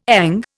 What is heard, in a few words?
"ENG", Indian